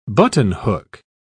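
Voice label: "buttonhook", English, British English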